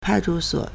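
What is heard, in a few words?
"pai4chu1suo3", Mandarin Chinese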